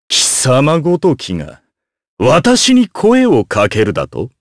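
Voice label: vox get Japanese; Kain; kingsraid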